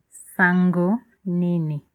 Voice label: hello, lingala